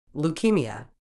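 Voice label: "leukaemia", British English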